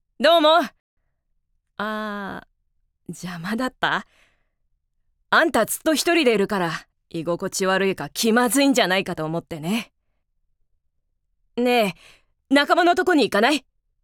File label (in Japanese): ボーイッシュ